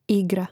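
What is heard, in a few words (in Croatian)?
"igra", hrvatski; neizvorni; mreznik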